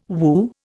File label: "woo", Indian